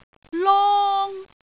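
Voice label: "long1", Cantonese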